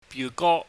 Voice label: "biugo", Cantonese